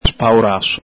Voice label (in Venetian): "spauraso", Veneto